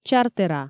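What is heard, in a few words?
"чартера" Komi, female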